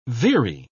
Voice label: "veery", pronounce